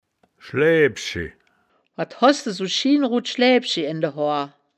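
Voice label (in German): "schlaeaeb schi", wittlicher woerterbuch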